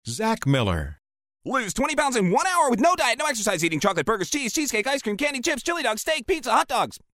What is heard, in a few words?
SPEED READER